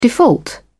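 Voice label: "default", British English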